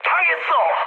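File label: Korean aircraft destroyed mood high, speech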